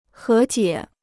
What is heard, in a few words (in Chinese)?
"和解", Chinese